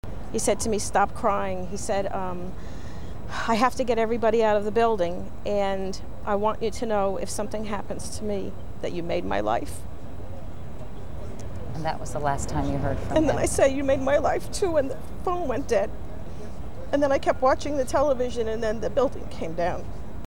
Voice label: phonecall